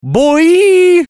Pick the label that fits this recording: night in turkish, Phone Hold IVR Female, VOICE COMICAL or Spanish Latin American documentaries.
VOICE COMICAL